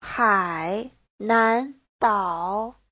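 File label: "Hǎinándǎo", Mandarin Chinese; 海南岛; Đảo Hải Nam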